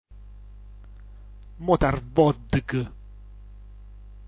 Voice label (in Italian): "motarvodch", dialetto giulianova